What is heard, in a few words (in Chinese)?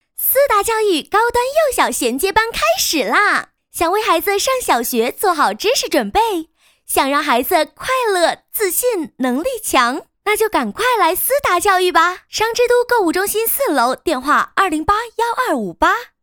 女,广告,教育; 思达教育